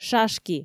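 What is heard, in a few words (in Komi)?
"шашки", Komi, female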